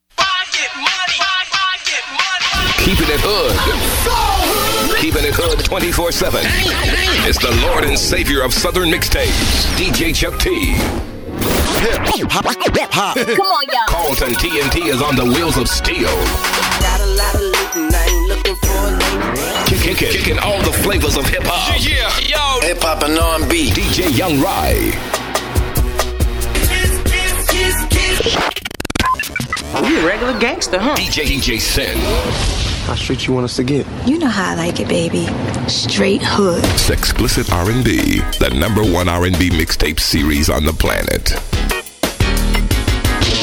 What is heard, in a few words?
urban dj drops